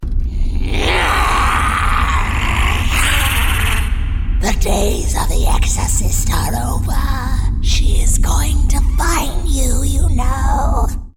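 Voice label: Demon monster creature exorcist animal texture gutteral spooky scary, Female Voice Over; threatening demon; demonic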